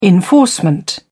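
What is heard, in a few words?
"enforcement", British English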